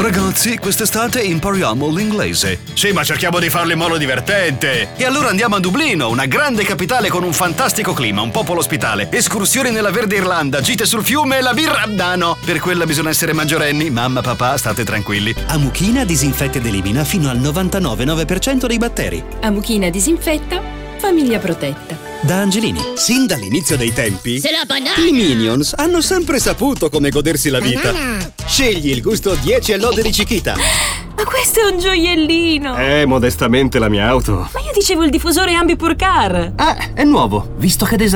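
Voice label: Italian Commercial Smiling Positive Energetic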